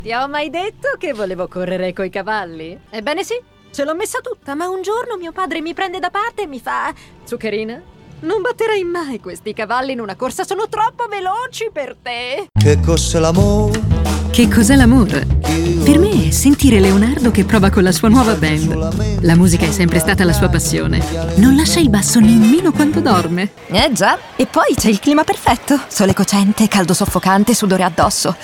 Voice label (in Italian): recitato